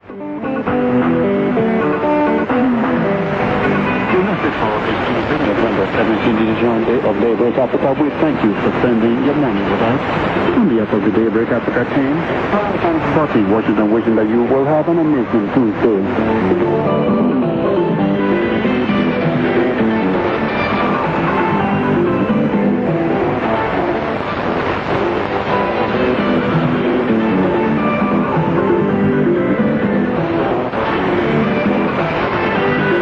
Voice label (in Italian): 9775 kHz inglese; VOA Botswana; Degen DE 1103; filo 5m indoor; St. Ursen